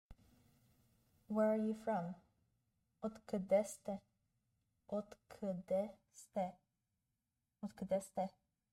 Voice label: Where are you from, bulgarian; the talking bulgarian phrasebook